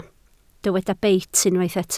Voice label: "beit", Welsh